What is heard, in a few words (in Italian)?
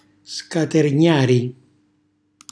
"scatergnari", Calabrese; cucina calabrese